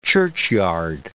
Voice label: "churchyard", English